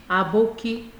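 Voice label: "aboki", Nigerian Pidgin, female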